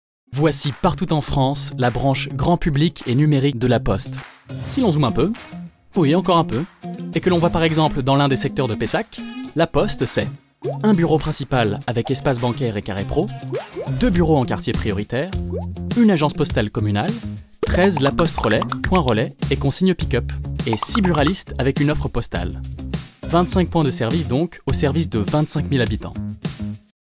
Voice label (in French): Institutionnel FR; La Poste